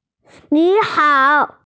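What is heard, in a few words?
"hello", Mandarin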